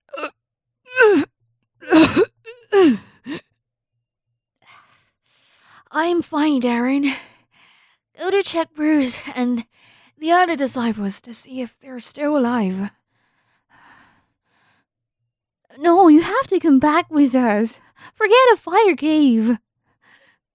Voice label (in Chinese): 英女 角色 战斗